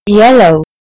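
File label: "yellow", British English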